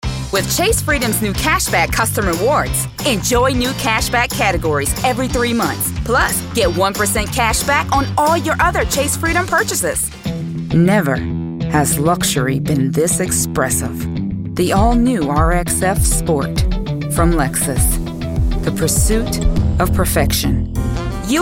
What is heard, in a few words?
Commercial Voiceover